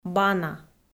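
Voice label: "бана", Komi-Zyrian, female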